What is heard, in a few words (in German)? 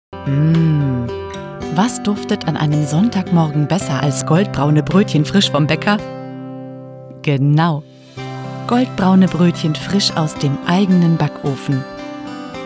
Radiospot, Commercial (Werbung), German; Backheld